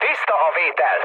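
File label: chat, speech hungarian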